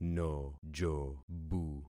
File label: "nojobu", concat syllables; Affixing experiment